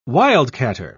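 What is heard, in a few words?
"wildcatter", British English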